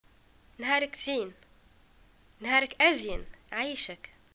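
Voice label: greeting good morning, Tunisian Arabic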